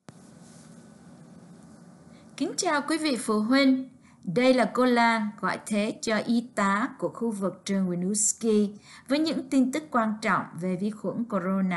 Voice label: Nurse Message vietnamese